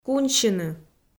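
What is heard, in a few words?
"куньсьыны", Komi, female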